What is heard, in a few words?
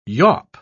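"yawp", British English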